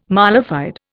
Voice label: "mala fide", Indian